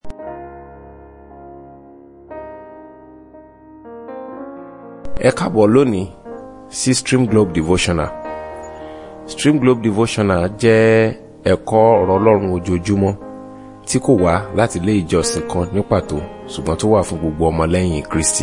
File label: Devotional Audio Yoruba